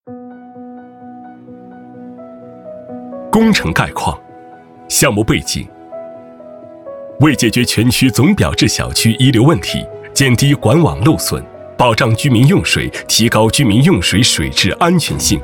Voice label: 男 工程汇报; 工程概况

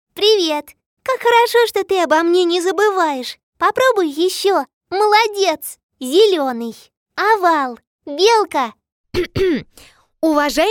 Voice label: 外籍俄语女-模仿小孩 Kid

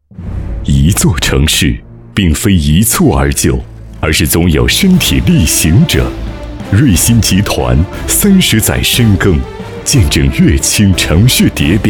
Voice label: 男 房企 力度浑厚; 中金汇

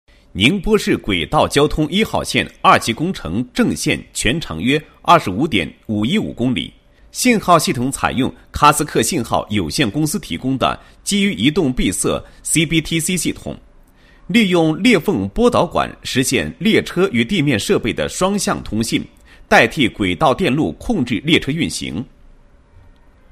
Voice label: 男 解说词; 波导管支架操作解说词

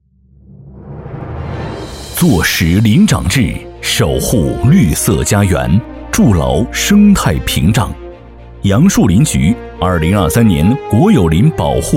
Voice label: 男, 专题汇报片; 杨树林局

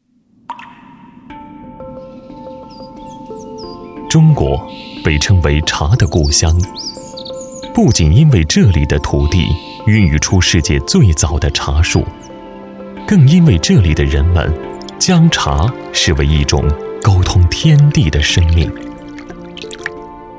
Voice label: 男 纪录片

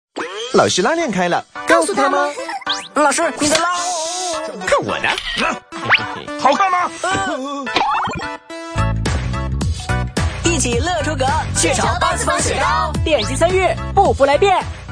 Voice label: 男 广告; 雀巢8次方2016

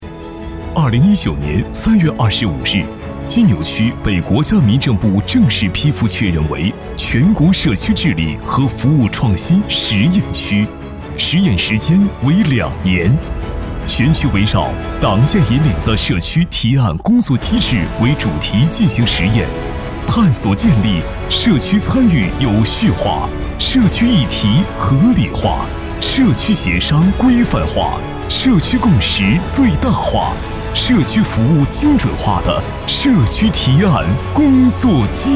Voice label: 男 党政专题; 金牛区

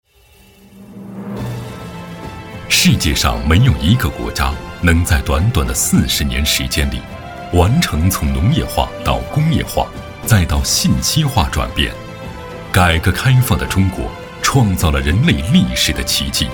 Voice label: 男 专题 党政 片 大气严肃 走进上市公司

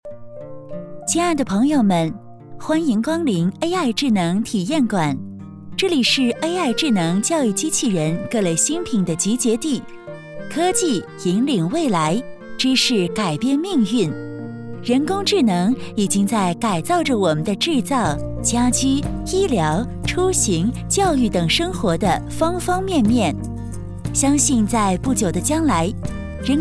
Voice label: 广播解说 活泼亲切

